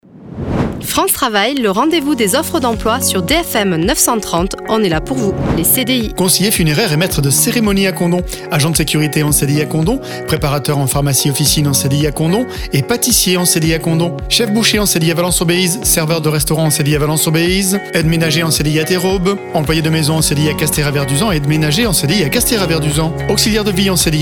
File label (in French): PUB CDI